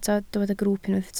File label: "grwwp", Welsh